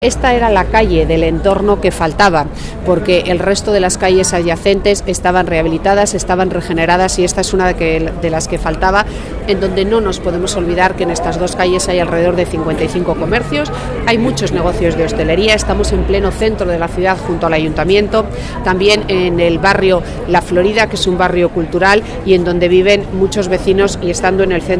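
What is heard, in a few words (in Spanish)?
Campaña comercial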